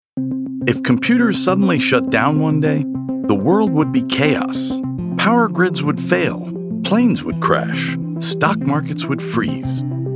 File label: narration